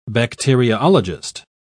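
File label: "bacteriologist", British English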